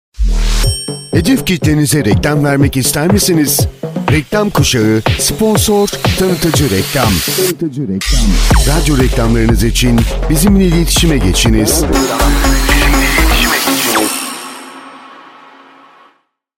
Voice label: reklam

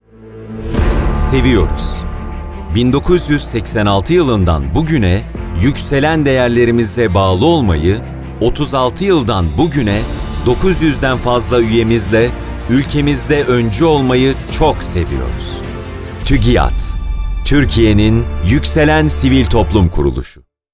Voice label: tanitim filmi; tugiad

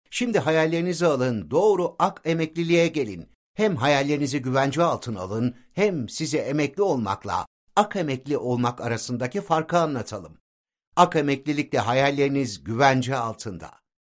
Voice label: enerjik reklam

